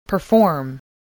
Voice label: "perform", English, voice